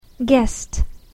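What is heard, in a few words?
"guest", English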